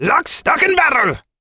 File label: dwarf Archer taunt